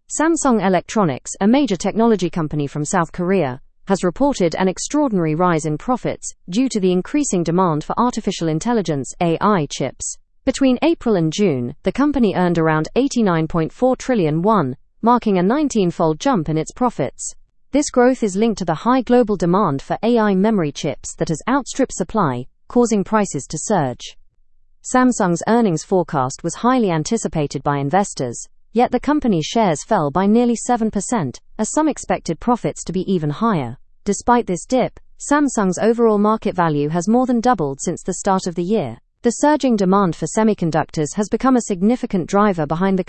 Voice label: english news upper intermediate; samsung s rising fortunes with ai chip boom

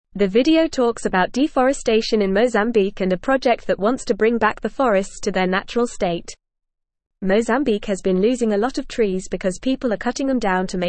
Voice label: English FAST Reading; Restoring Mozambiques Forests A Sustainable Partner

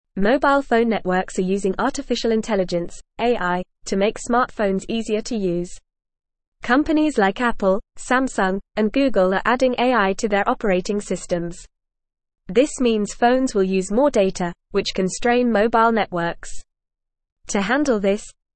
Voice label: English FAST Reading; AI Revolutionizes Mobile Networks and Customer Serv

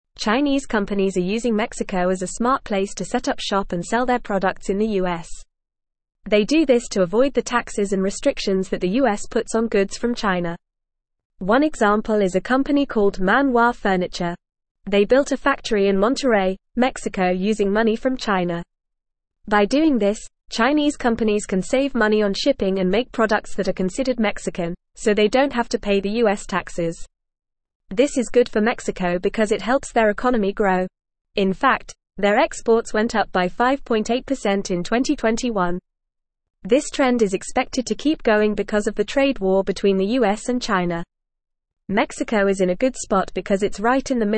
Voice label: English FAST Reading

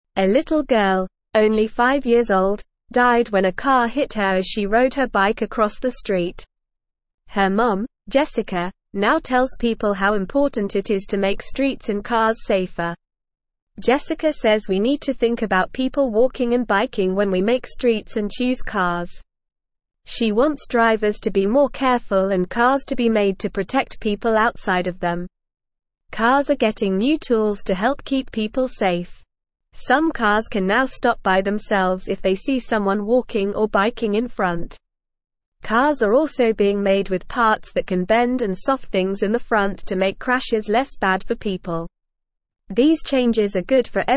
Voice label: English Lower Intermediate NORMAL Reading; Mom Works to Make Streets Safer for Everyone; English Newsroom

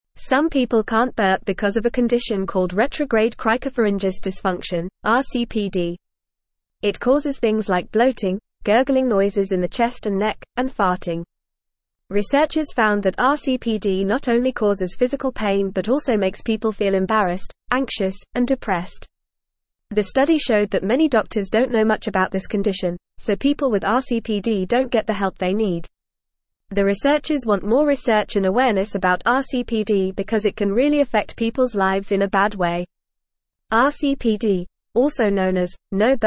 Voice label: English Newsroom Upper Intermediate FAST Reading; R CPD No Burp Syndrome Negatively Impacts Quality o

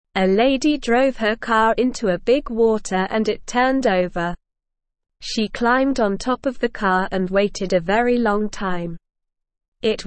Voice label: English Beginner SLOW Reading; English Newsroom

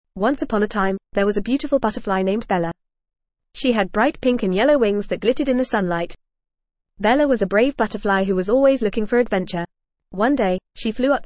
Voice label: ESL Short Stories for Kids FAST reading; Bella The Brave Butterfly

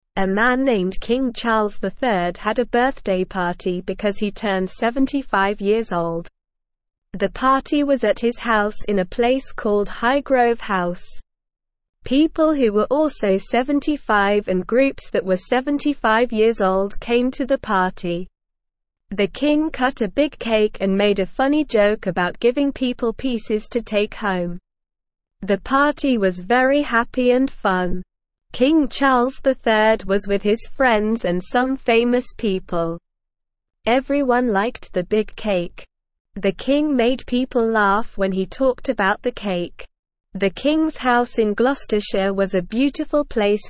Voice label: English Lower Intermediate SLOW Reading; The Kings Happy Birthday Party with a Funny Joke; English Newsroom

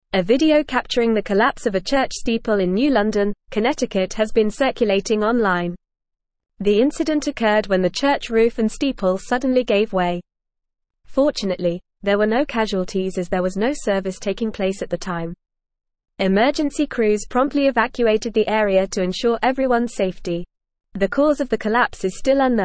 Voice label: English Newsroom Advanced FAST Reading; Church roof and steeple collapse in New London

